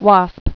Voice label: "wasp", English